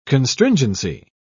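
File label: "constringency", English, British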